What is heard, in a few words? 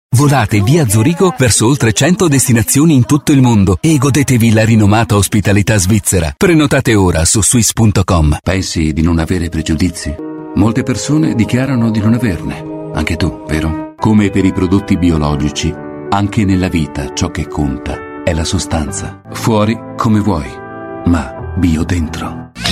italian commercial; Italien